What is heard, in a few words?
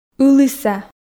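"ыліса", Komi, female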